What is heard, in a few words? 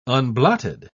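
"unblotted", British English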